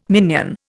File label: "minion", Indian